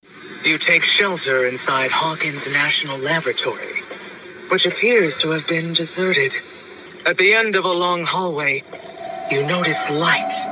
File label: spooky creepy scary narration; choose your own adventure - integrated voice response; Stranger Things